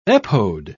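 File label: "epode", British English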